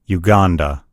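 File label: "uganda", English; gcompris; geography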